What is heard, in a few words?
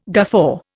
"guffaw", Indian